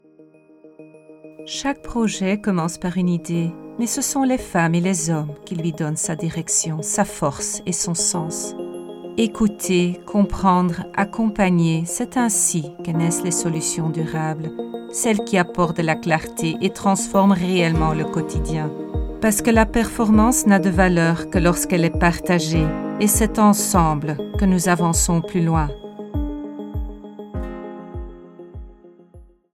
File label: Corporate avec son FR, voice over voix off